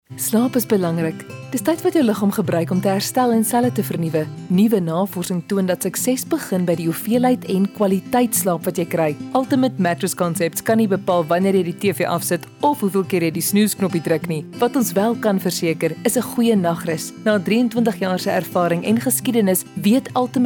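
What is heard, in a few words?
RADIO ADVERTISEMENTS; umc; VOICE-OVER WORK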